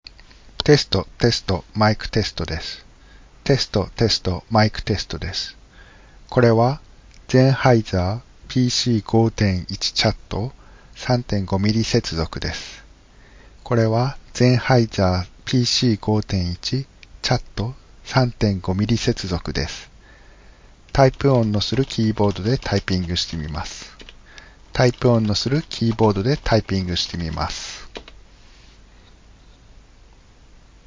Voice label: Sennheiser PC5 CHAT; 録音音声; ノイズキャンセリングも効いているので、キーボードのタイプ音もかなり小さくなっているのがわかると思います。; ヘッドセット; Zoom; ブームマイク